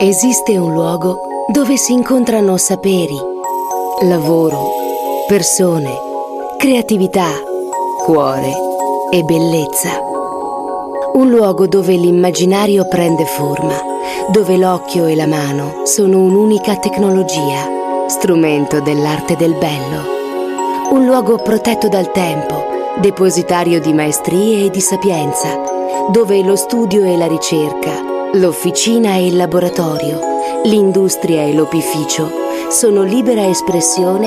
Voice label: italian voice over female commercial